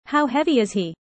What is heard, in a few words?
user fast; how tall